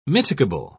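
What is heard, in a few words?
"mitigable", British English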